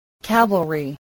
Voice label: "cavalry", spelling